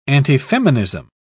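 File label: "antifeminism", British English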